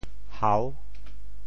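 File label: "hau6", Teochew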